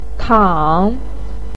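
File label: "tang3", Mandarin Chinese, pinyin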